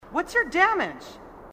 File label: marine female, marine sassy